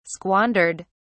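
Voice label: "squandered", English, British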